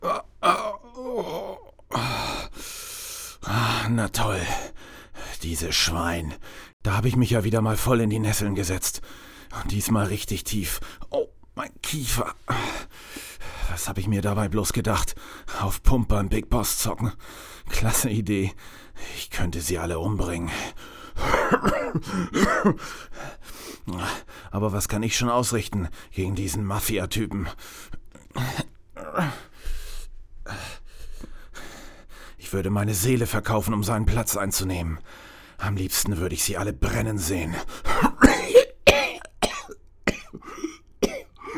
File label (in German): hoerspiel; stewie